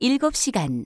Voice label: Korean 5 hour; samsung YP-U5; Audio User Interface